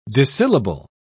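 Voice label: "dissyllable", British English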